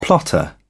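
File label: "plotter", British English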